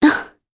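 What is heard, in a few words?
Deny, Korean; voices; heroes; Talisha; kings raid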